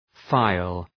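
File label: "phial", English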